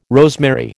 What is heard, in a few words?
"rosemary", American